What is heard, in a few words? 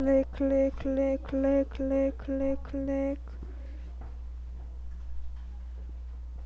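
"lick", spoken word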